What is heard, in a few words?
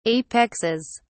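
"apexes", British English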